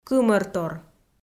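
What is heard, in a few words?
"кымӧртор", Komi-Zyrian, female; kpv audio dict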